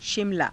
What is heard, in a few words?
"shimalaa", hindi; शिमला; [男]シムラー